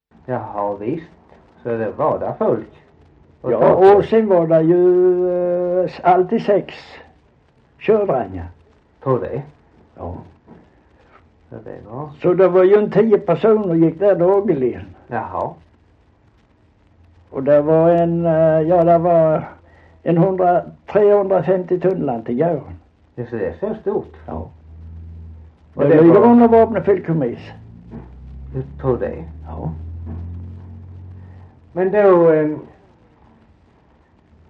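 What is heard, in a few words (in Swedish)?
Holm Halland, dialekter; Folkminnen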